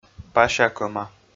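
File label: "пася-кӧма", Komi, male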